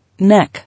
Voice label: "Neck", English